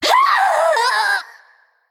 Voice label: Female Naga Death

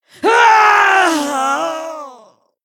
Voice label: Female Human Death

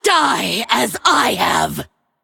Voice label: Female Forsaken Attack

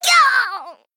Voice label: Female Goblin Death

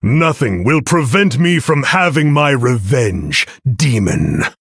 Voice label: Male Human

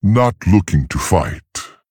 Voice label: Male Tauren Attack

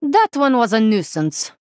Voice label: Female Draenei; SHADOW WORD PAIN

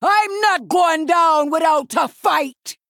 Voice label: Female Troll

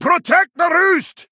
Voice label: Male Dwarf Attack

